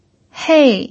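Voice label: "hei" fourth tone, pinyin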